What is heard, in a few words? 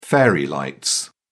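"fairy lights", British English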